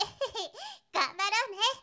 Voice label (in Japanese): syozyo ganbarone